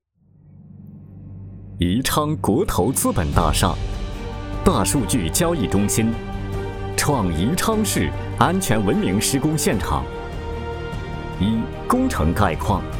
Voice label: 男, 专题 工程汇报; 宜昌国投资本大厦

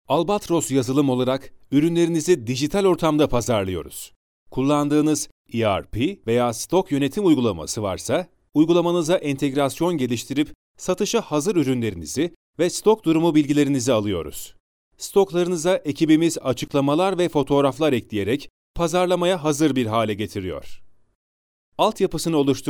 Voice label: 土耳其语 男 严肃正式

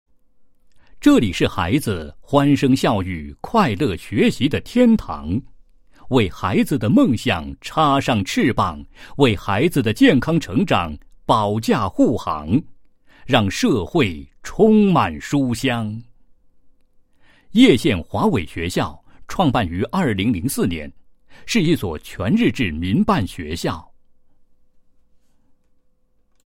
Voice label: 男, 学校宣传; 叶县华伟学校宣传简介

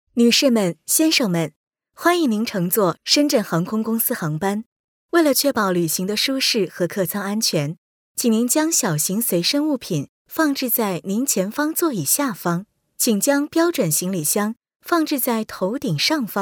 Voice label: 女 中英文样音 航空公司通知

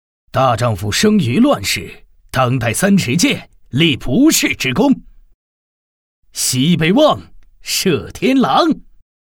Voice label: 男 中青年 爽朗豁达

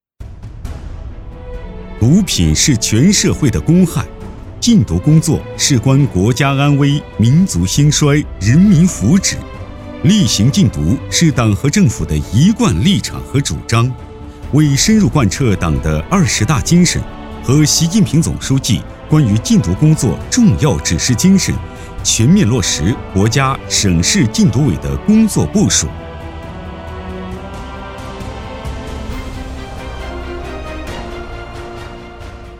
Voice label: 男 专题 禁毒类

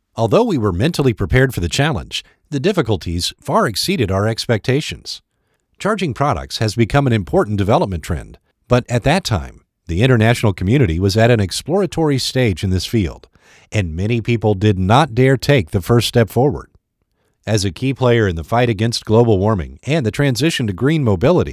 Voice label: 成熟 公司宣传

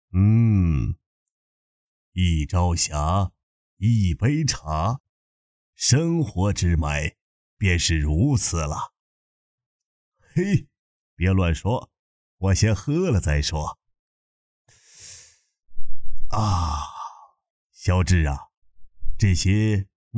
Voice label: 短剧配音; 隔夜茶真的致癌吗

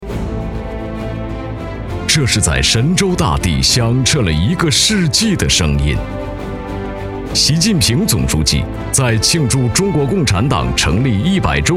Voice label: 男 专题; 以党员的名义

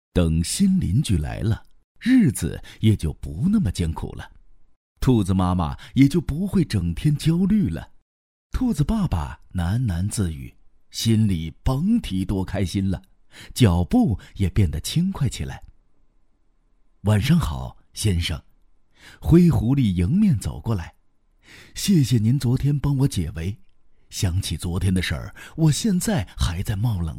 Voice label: 男,少儿读物 灰狐狸 讲故事风格; 凯叔讲故事风格

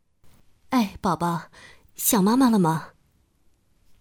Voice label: 角色妈妈口型

